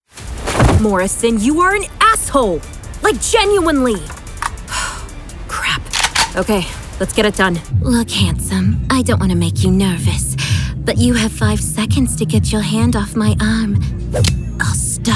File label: vo interactive